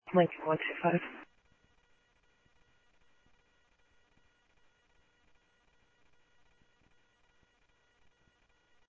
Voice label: South Scottsdale Sheriff, scanner audio